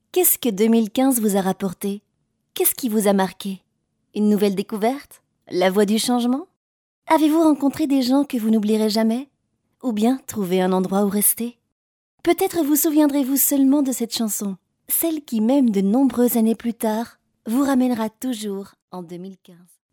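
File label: French, female, explainer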